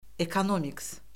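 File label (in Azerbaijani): "ekonomiks", Azerbaijani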